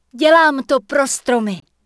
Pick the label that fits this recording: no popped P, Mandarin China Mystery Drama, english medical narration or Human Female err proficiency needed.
Human Female err proficiency needed